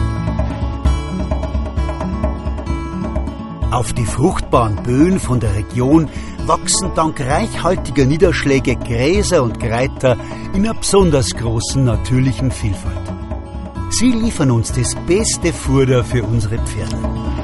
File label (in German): Dialekt Bayerisch